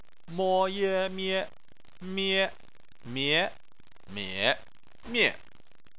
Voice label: "mie", chinese